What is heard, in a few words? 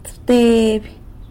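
"tsëbteby" eleven, San Lucas Quiaviní Zapotec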